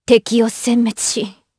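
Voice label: vox, voices, Japanese; kingsraid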